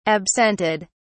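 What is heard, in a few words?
"absented", English, British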